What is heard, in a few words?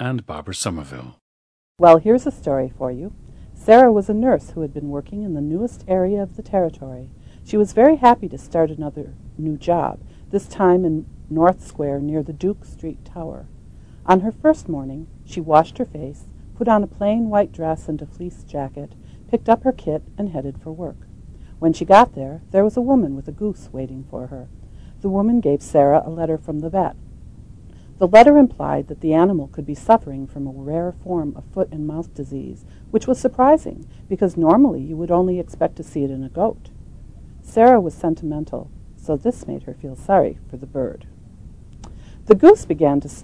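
wisconsin, dialects of English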